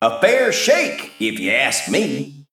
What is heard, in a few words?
Male Mech After Selling